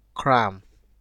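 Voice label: "cram", Nigerian Pidgin, male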